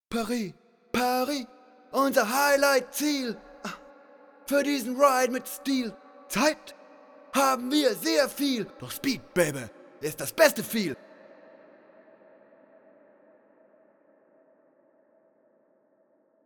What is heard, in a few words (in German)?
Stimme HaloVerb 7Sec Wet; Metric Halo v4